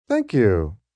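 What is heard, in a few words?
"Thank You" male; Etiquette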